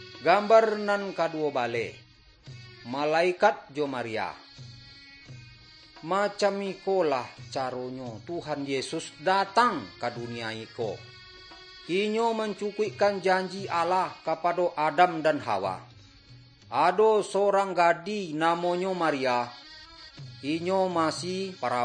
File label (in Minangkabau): good news minangkabau